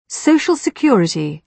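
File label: "social security", British English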